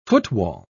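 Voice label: "footwall", en, uk British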